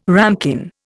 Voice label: "ramekin", Indian